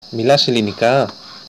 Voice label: do you speak, Greek